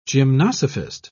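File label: "gymnosophist", British English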